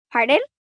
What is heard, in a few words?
Pardon, Etiquette, 1 girl, 10 yrs; Talking; VoiceBox